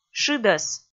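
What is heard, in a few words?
"шыдӧс", Komi, female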